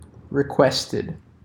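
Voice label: "requested", US English; pronunciations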